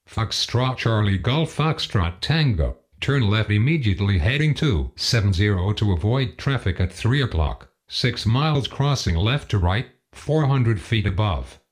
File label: listening exercises